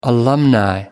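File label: "alumni", British English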